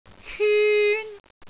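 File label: "hyun1", Cantonese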